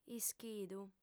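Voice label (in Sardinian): "ischidu", female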